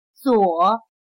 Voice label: "左", Chinese; 左/zuǒ/izquierda